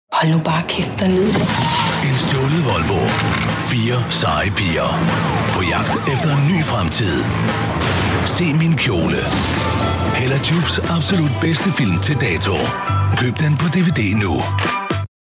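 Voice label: Danish tv promo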